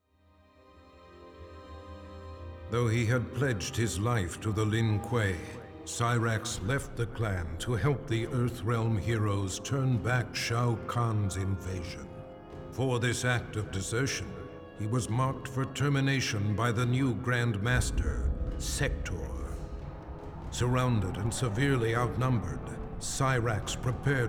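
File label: music voice-over endings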